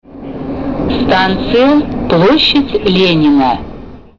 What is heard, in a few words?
female